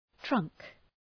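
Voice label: "trunk", English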